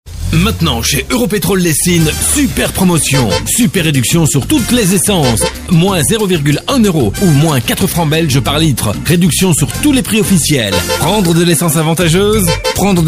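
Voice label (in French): Belgian French, male, commercial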